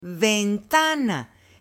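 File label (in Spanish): "ventana", Spanish, female; MUEBLES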